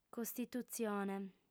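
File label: "costitutzione", female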